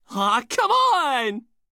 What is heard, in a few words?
Emote What; Quincy; Voice Pack